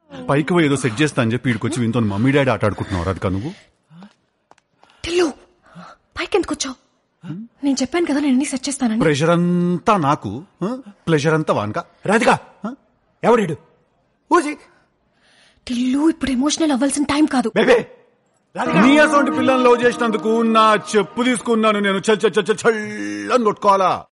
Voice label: dialogue telugu; Radhika